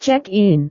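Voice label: "check-in", Indonesian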